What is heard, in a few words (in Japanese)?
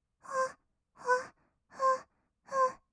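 "ほっほっ", Japanese, kawaii voice; 合いの手; 1200 kawaii voice!!; ASMR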